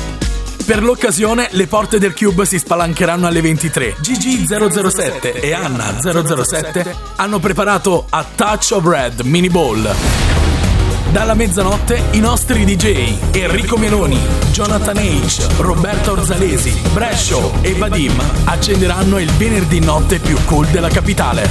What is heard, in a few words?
promo strike a pose